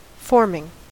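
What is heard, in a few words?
"forming" US English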